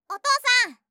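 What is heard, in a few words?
"お父さん" Japanese, kawaii voice; ASMR; 呼びかけ系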